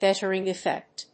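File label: "gettering effect", English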